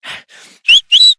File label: Horse Sprint Adult; Duviri